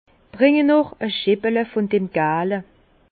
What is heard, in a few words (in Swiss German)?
"scheppele", Bas Rhin; vin; apportez encore une chopine de ce breuvage doré